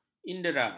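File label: "indera", Malay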